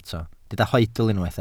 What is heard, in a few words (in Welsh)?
"hoedl", Welsh